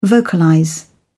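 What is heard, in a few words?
"vocalize", British English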